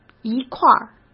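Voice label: "一块儿", Mandarin Chinese; hsk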